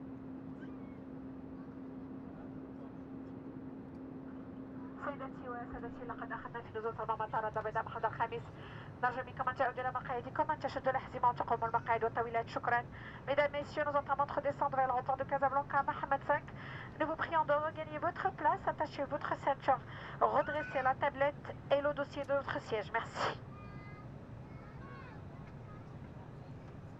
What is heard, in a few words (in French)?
annonce